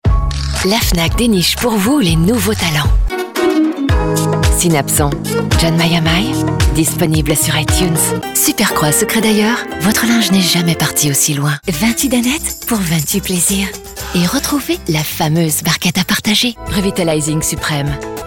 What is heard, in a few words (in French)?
pub, voix off; publicités; Enregistrements dans la cabine speak